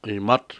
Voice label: "polvo", Cucapá

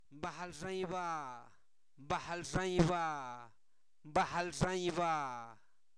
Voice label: estrella, Zapoteco, santo domingo albarradas; fenomenos naturales

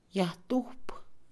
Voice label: Mixe, Mujer; disparar; INALI

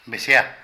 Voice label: Águlia, Zapoteco de Petapa; Animales; Vocabulary

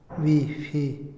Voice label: husmear, Otomí, hombre; Percepción; INALI

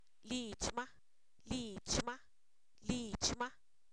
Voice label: Zapoteco, san miguel albarradas; su casa; posesivos

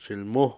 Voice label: mosca, Cucapá; Animales

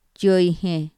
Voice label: cienpiés, Mazateco; INALI

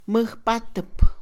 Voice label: creer, Mixe, Mujer; INALI

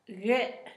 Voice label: flor, Zapoteco, Asunción Ocotlan; Objetos; INALI

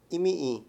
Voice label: "aquí", Mayo

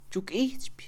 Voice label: sospechar, Mixe, Mujer; INALI

